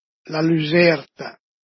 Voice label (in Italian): "la luserta", Dialetto di Albosaggia; Lucertola